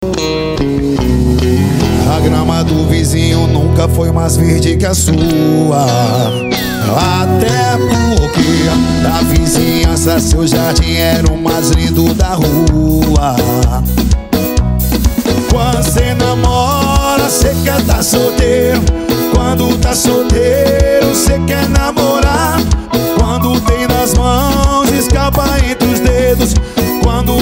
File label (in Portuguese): locutor a voz magnifica